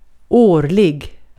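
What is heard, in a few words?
Swedish vocabulary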